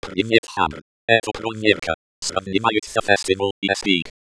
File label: espeak